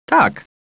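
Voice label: "yes", male; TALKNOW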